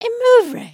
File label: talk kind